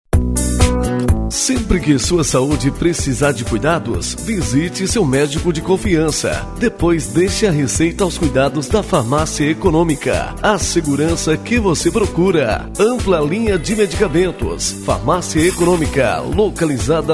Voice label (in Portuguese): locutor comercial farmacia; farmacia economica